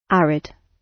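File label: "arid" British; Flora and fauna, agriculture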